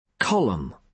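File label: "column", British English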